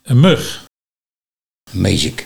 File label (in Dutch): "Mezik", Nieuw lekkerlands dialect; mug